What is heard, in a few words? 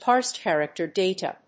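"parsed character data", English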